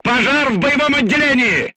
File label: voice message fire in tank, crew tanks russian